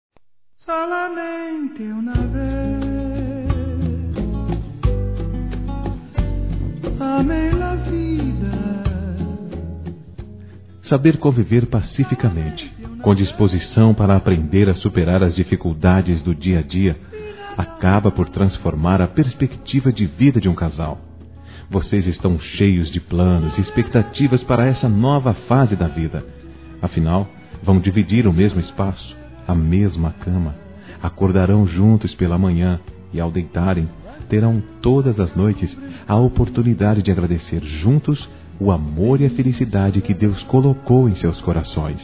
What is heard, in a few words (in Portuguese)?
Casamento Masculino; Telemensagens; Parabenização